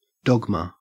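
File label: "dogma", Australian English; pronunciations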